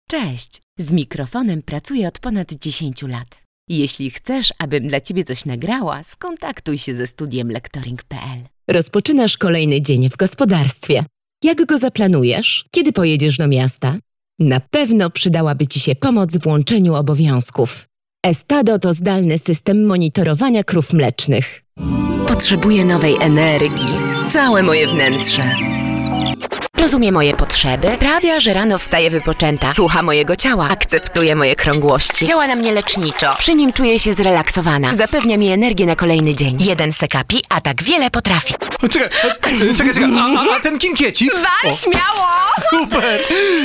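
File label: Polish female; lektoring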